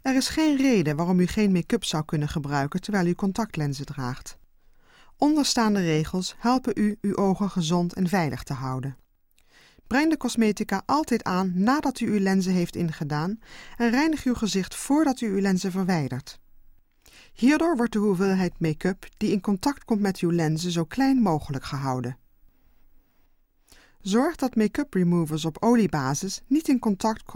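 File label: Dutch General; GoLocalise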